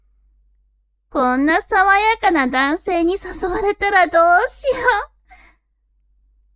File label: woman